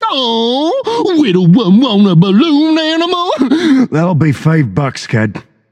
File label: taunt, voice; smite; tyr